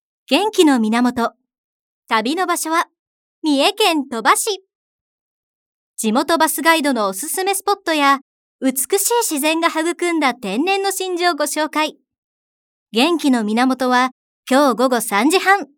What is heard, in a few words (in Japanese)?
明るめ